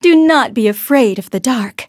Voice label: levelup, en; Cookie Run